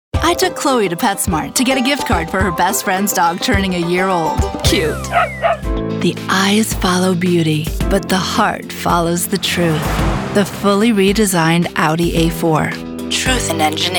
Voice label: Commercial; Commercial Demo